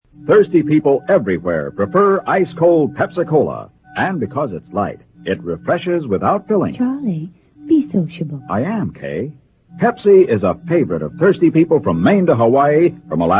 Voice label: Vintage Commercials; Pepsi